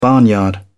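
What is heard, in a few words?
"barnyard", British English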